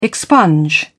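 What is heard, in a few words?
"expunge", British English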